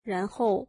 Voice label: Then, mandarin